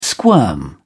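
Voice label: "squirm", British English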